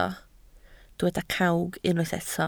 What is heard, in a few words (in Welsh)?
"cawg", Welsh